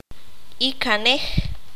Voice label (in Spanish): "tia la hermana menor de su madre", Q'anjob'al